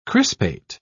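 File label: "crispate", British English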